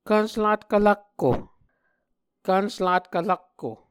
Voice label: "kvnsvlatkv rakko", Muscogee